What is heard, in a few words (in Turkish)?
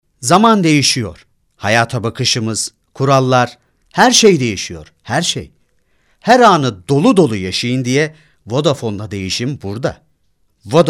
reklam